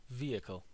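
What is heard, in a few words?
"vehicle", American English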